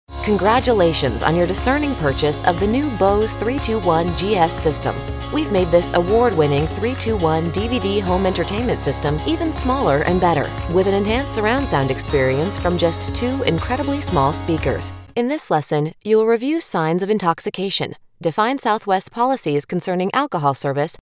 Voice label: 30s Female eLearning

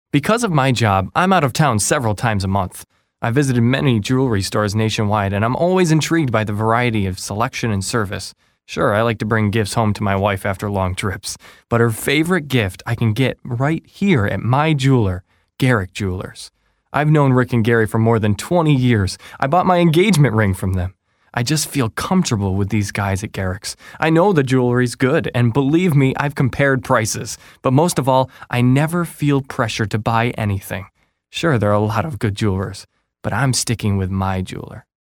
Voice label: 20s Male

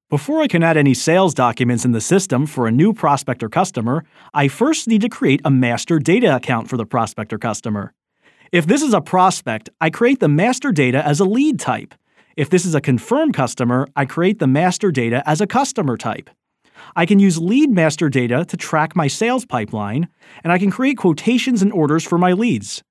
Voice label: 20s Male Narration

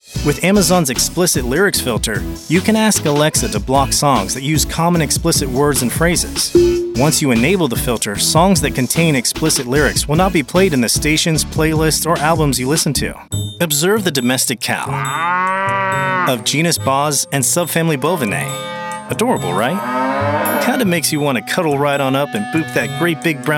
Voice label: 30s Male Narration

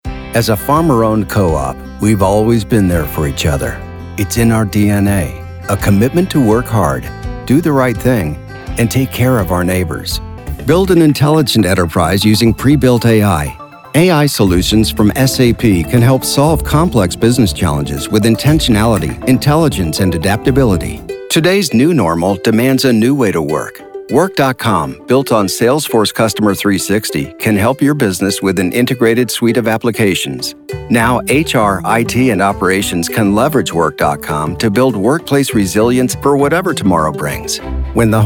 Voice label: 50s Male Narration

